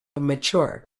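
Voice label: "mature", British English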